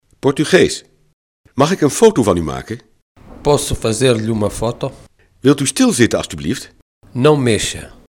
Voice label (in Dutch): portugees; Mag ik een foto van u maken?